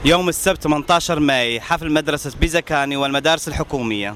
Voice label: arabo

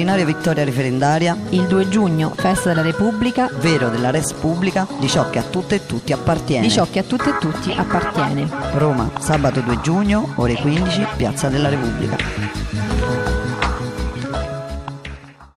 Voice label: spot acqua manif nazionale

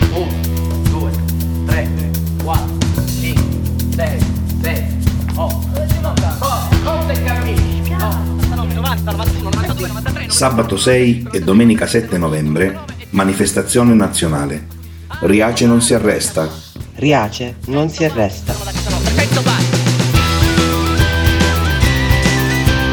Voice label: spot; riace

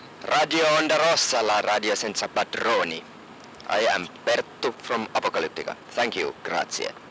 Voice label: BIANCO JINGLE ONDA ROSSA

